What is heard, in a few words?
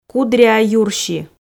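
"кудриа юрси", Komi, female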